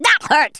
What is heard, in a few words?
"ouch", Rasta